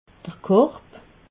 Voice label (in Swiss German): "korb", alsace